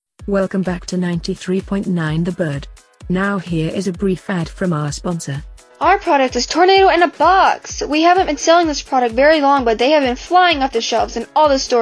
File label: Radio Commercial